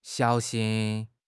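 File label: "xiao3 xin1", Chinese; HSK3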